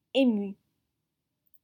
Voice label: "ému", French